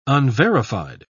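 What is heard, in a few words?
"unverified", English, British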